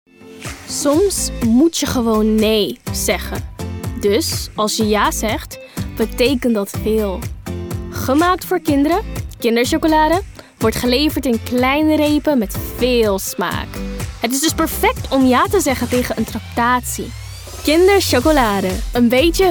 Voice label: Commercial

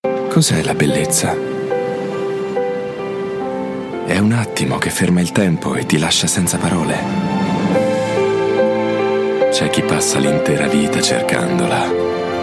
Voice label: Italian

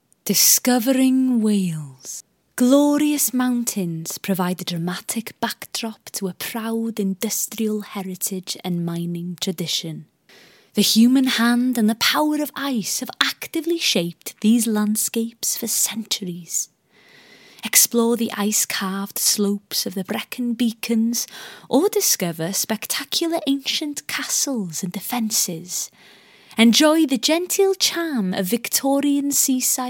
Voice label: Welsh Accent

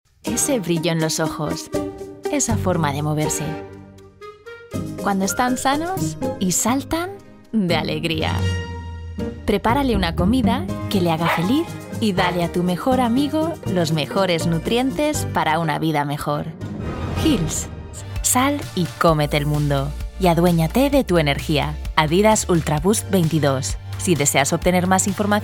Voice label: Spanish

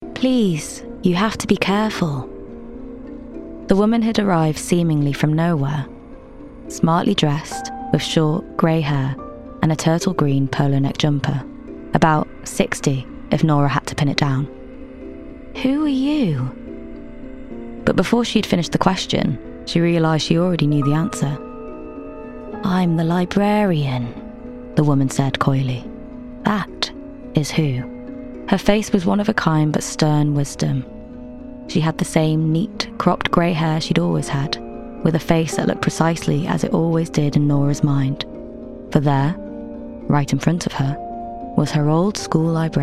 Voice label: Audiobook, Female, North East

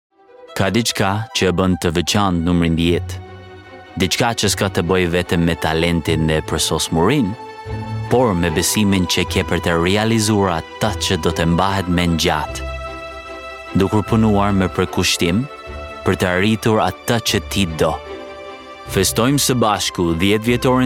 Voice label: albanian language commercial; Albanian, Male, 20s-30s

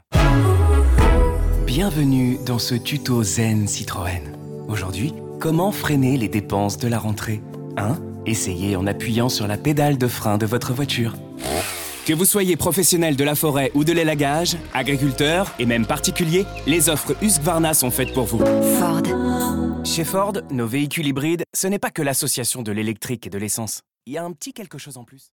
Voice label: French

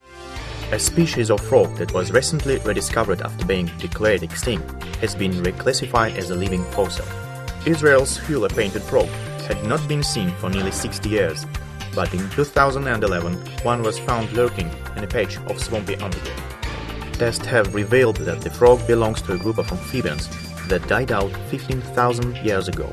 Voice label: Russian English

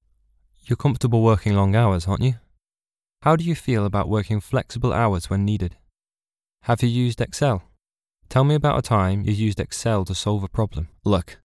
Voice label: E Learning Corporate Reel, nottingham accent

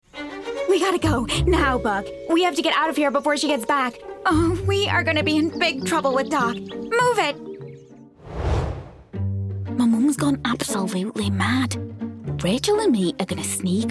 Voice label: Animation

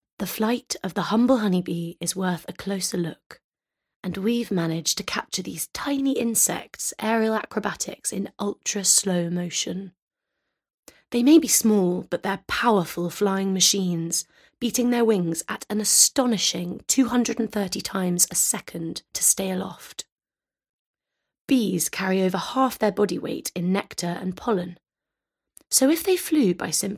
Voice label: Narration; 20s-40s. Mid-tone. Strong, thoughtful and calm. RP.

